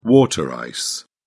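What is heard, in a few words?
"water ice", British English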